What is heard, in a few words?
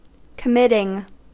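"committing", US English; pronunciations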